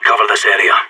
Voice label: radio bot hold